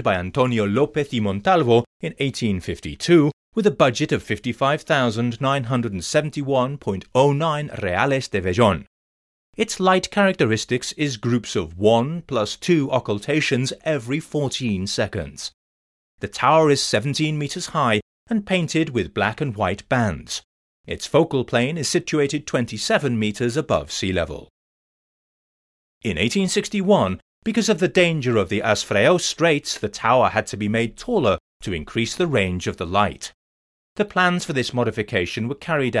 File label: lighthouse audioguide; penjats